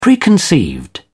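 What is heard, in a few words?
"preconceived", British English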